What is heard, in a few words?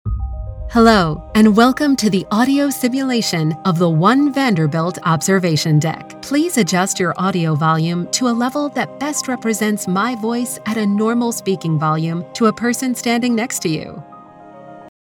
english american audio guides